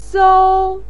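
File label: "sou", Mandarin pinyin, first tone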